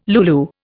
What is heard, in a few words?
"lulu", Indian